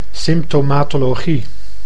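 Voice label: "symptomatologie", Dutch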